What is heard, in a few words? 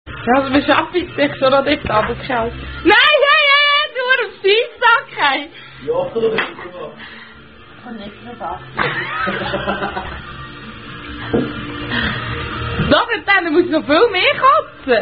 Trinkspiel, German Card Drinking Game; Unknown German Card Drinking Game II